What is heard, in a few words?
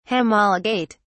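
"homologate", British English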